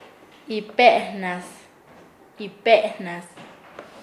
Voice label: "cuidarde", Nasa Yuwe; paez